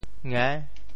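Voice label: "nge~6", Teochew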